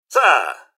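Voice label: hello, robot; rivetcity; mrhandy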